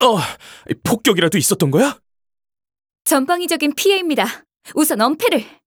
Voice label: Announcer Ally Dying